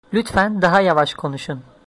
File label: slowly, turkish; Lütfen daha yavaş konuşun